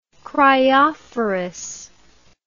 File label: "cryophorus", english